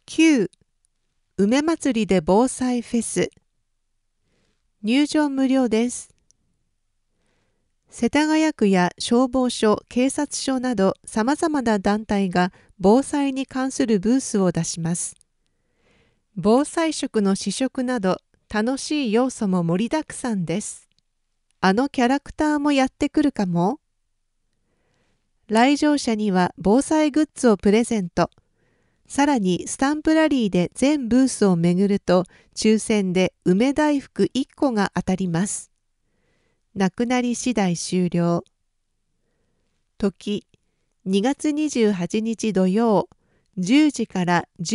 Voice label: flyer voices